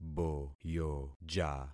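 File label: "boyoja", concatenated syllables; Affixing experiment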